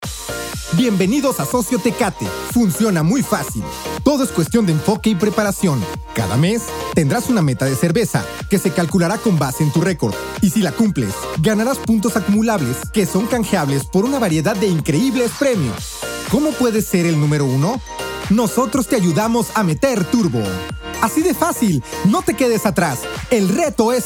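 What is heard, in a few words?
comercial, Mexican Spanish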